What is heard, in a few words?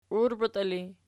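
"uirbeti" ergative, Archi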